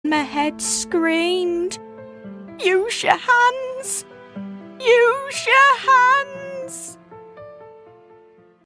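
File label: English UK, Dramatisch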